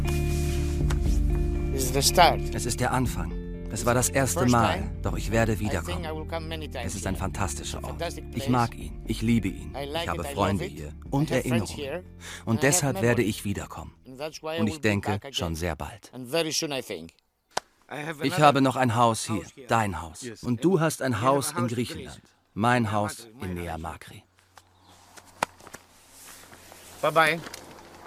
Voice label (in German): doku; geisterstaedte; tuerkei; nach hause in die vergangenheit